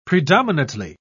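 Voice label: "predominately", English, British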